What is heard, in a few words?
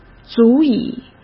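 "足以", Mandarin Chinese; chinese-flashcards; hsk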